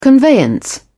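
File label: "conveyance", British English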